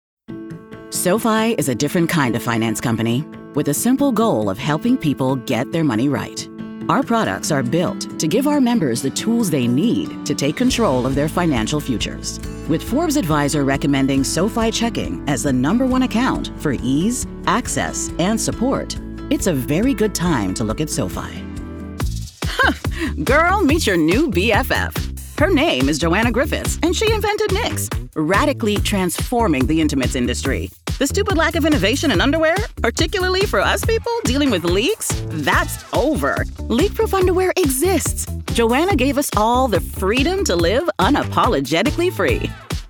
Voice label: Corporate, narration